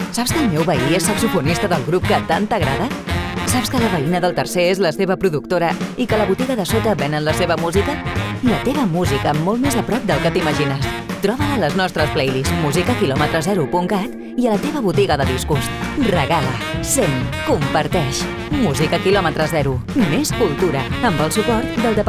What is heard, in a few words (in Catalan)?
Falca Radio; Musica Km0 CAT